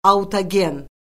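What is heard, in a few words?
"autogen", Azerbaijani; Orfoqrafiya Lüğəti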